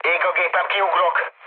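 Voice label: Hungarian on fire mood low, speech; War Thunder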